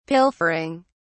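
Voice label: "pilfering", British English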